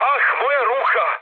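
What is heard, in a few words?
Serbian damaged pilot mood high, speech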